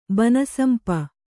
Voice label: "ಬನಸಂಪ", kannada